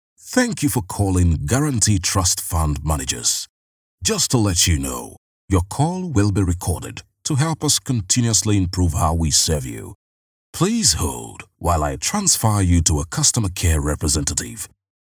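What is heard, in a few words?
english african interactive voice response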